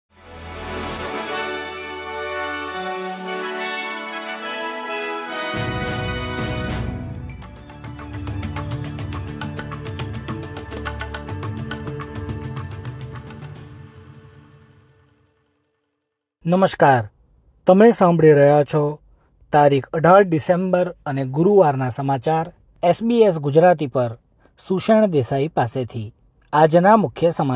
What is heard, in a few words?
gujarati newsflash; ૧૮ ડિસેમ્બર ૨૦૨૫: ઓસ્ટ્રેલિયાની મુખ્ય અપડેટ; SBS Gujarati